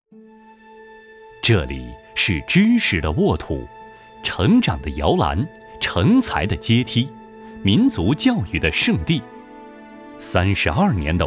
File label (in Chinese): 男 学校宣传片 沉稳